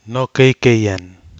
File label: "nokekeien", Nafe (Kwamera); v. to love